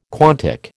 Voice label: "quantic", American